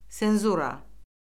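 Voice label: "senzura", Azerbaijani; Orfoqrafiya Lüğəti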